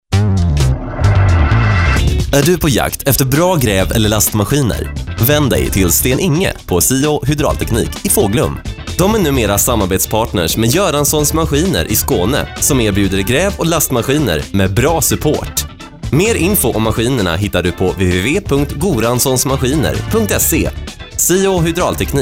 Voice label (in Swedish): reklam